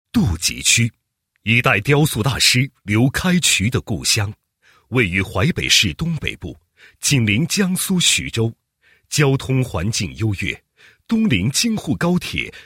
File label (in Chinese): 男-公安形象宣传; 杜集公安分局形象宣传